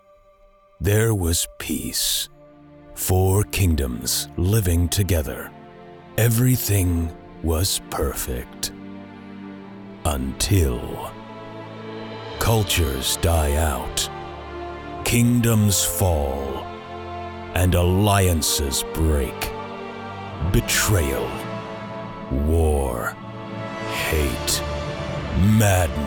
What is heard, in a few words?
movie trailer voice Fantasy; en-AU